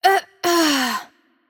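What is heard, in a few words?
Hungarian death voice; bloom minigame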